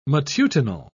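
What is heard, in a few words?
"matutinal", British English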